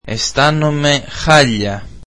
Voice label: greek I am sick